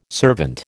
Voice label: "servant", American

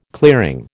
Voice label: "clearing", American

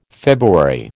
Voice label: "February", American

